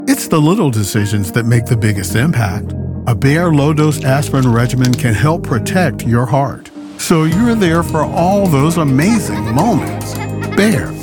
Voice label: Commercial; Bayer